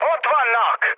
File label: enemy detected, speech hun; War Thunder